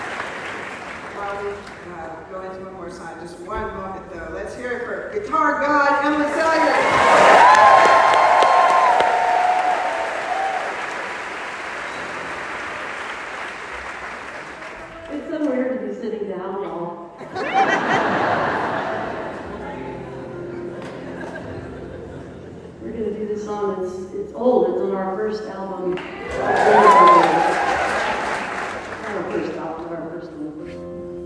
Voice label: conversation

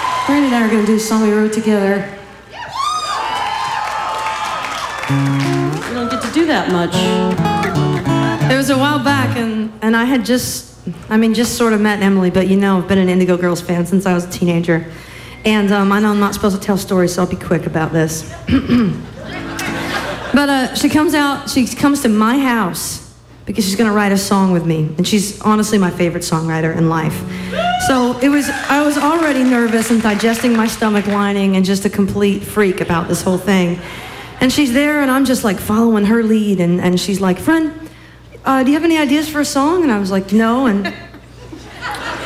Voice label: banter

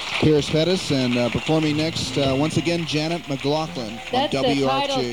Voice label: radio announcer

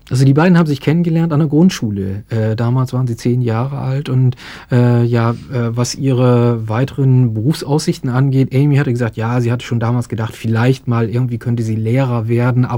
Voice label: german translation

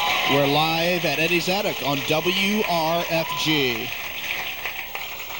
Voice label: radio announcer

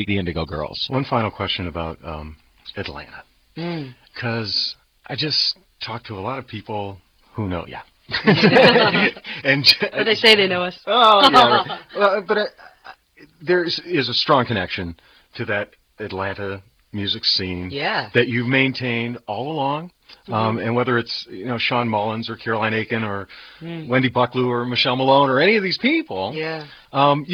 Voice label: chat; acoustic cafe

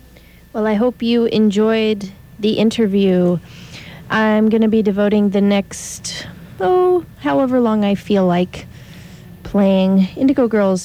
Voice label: announcer

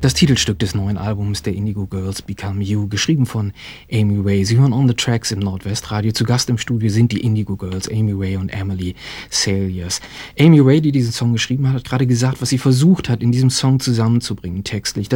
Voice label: german translation

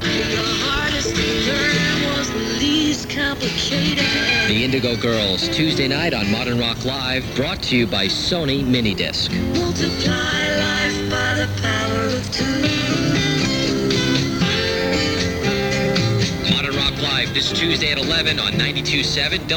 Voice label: promo

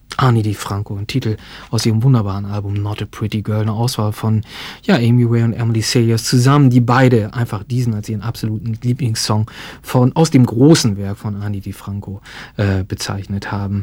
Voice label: german translation

